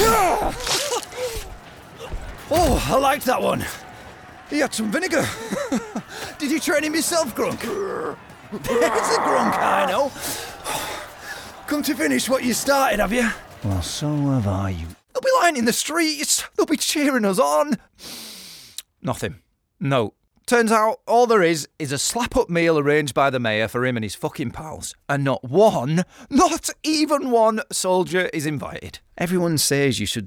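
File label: North English Character Accents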